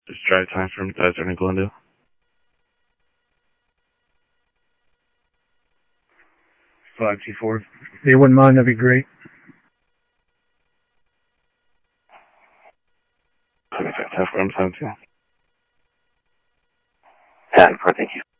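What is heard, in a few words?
Sheriff West County, scanner audio trunk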